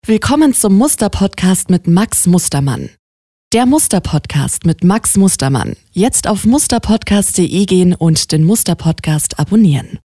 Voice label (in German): PodCast Stimme W1, Podcast